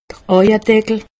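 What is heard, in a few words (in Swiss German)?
"aujedeckel", Alsatian; medecine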